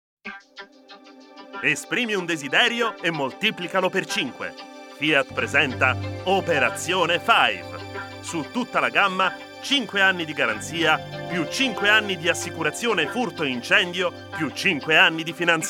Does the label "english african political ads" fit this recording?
No